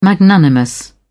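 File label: "magnanimous", British English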